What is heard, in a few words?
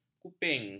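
"kuping", Malay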